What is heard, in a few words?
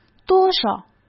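"多少", Mandarin Chinese; chinese-flashcards; hsk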